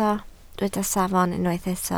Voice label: "safon", Welsh

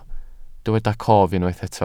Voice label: "cof", Welsh

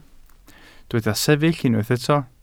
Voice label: "sefyll", welsh

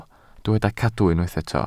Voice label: "cadw", Welsh

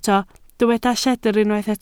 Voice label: "lledr", Welsh

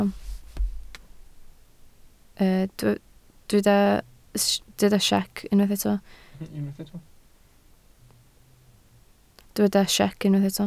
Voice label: "siec", Welsh

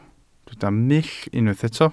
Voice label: "mull", Welsh

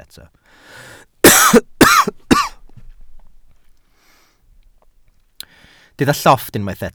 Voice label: "llofft", welsh

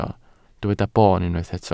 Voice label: "boon", Welsh

